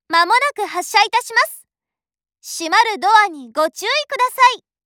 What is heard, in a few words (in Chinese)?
多田罗站发车前 广播, 车内广播; 万冈铁路车内广播；真冈方向